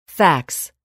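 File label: "fax", English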